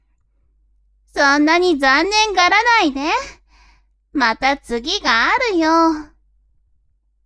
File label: woman